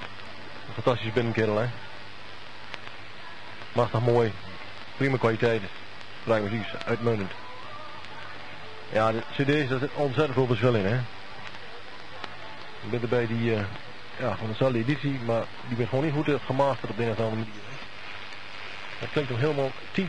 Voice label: 1650khz voice; kortegolf